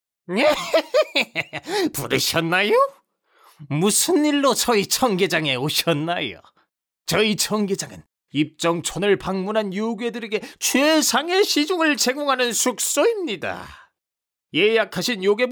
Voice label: Acting